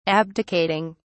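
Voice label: "abdicating", British English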